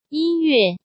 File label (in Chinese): "音樂", Chinese